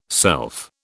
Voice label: "self", British

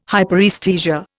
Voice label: "hyperaesthesia", Indian

